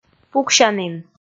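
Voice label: "пуксянін", Komi, female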